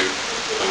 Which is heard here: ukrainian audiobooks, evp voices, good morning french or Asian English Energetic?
evp voices